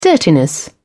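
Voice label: "dirtiness", British English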